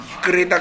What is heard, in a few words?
"fuggure", minamiyamagata